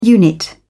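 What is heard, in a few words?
"unit", British English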